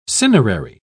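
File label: "cinerary", British English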